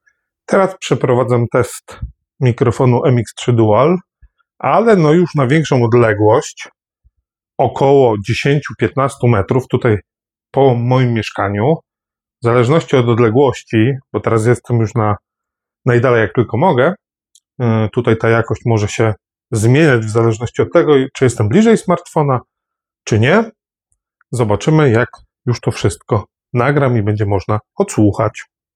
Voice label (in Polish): redukcja szumow 15 metrow; Mozos MX3 Dual